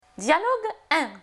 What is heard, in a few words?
Dialogue, francais; Leçon1. Rencontre